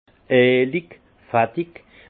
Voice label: "eelig", alsace; vin; onctueux

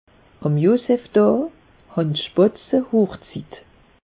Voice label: "joseph daa", alsace; meteo

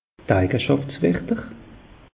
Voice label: "eijesschaftswerter", alsace; meteo

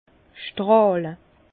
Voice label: "strahle", Bas Rhin; vin; étinceler

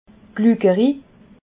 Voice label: "glukeri", Alsace

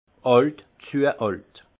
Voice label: "alt", alsace; vin; vieux trop

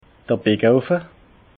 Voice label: "backeoffe", alsace

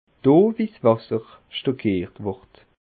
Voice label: "wasser", alsace

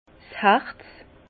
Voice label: "harz", Bas Rhin; vin; résine

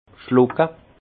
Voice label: "schlucke", alsace; vin; avaler

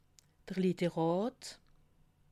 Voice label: "literat", alsace

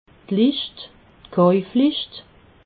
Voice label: "lischt", alsace

